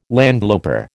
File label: "landloper", American